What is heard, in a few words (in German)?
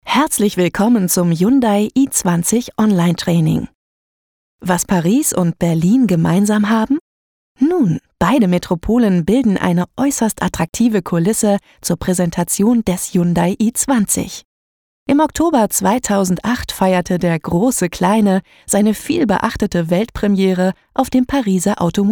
elearning, deutsch frauen; sprecher